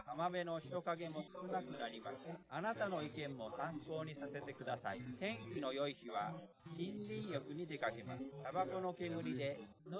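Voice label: male instantaneous source; SiSEC10; underdetermined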